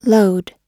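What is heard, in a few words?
"load", American English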